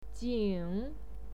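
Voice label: "jing", Mandarin Chinese pinyin, third tone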